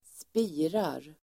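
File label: "spirar", Swedish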